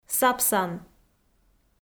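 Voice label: "сапсан", Komi, female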